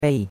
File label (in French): "bei4", chinois; Cours-de-Chinois